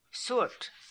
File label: "Soort", pronounciations; Fourth Way; Beelzebub